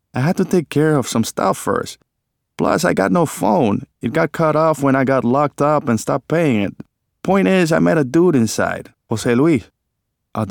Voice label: audiobook sample; House of Bone and Rain